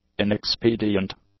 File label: "inexpedient", English; adjectives